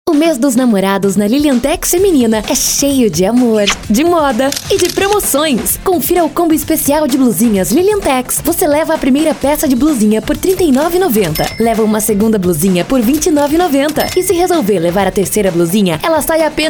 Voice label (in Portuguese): promocional